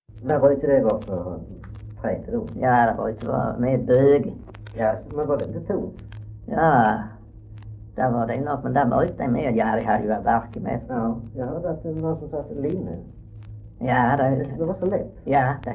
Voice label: Röke SK, dialekter; Folkminnen; Svenska sagor

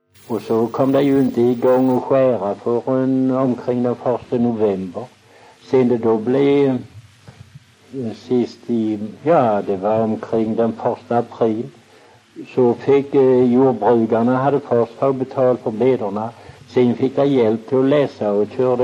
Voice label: Väsby, dialekter; Folkminnen; Svenska sagor

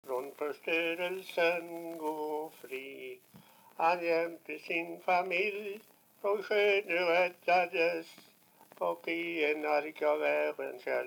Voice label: dialekter; Folkminnen; Svenska sagor

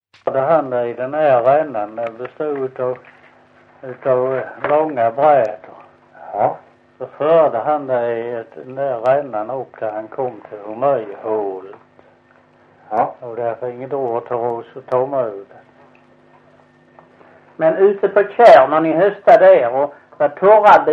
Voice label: Visseltofta SK, dialekter; Folkminnen; Svenska sagor

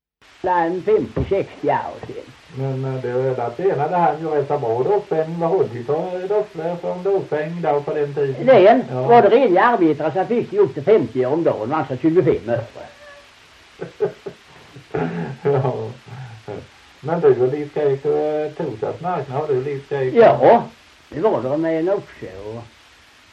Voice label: Ramdala BLEK, dialekter; Folkminnen; Svenska sagor

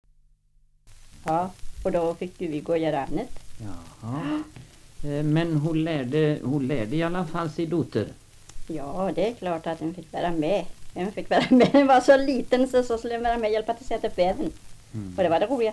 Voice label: Svenska sagor, dialekter; Folkminnen

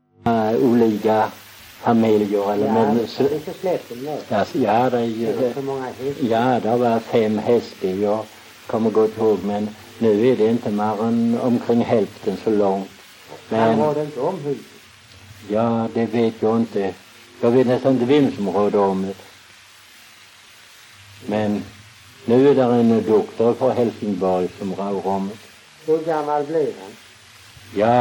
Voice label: Väsby SK, dialekter; Folkminnen; Svenska sagor

